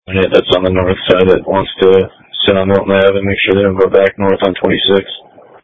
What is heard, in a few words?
Police Tactical Encrypted